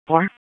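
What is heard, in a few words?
Police Dispatch, p25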